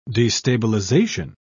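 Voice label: "destabilisation", British English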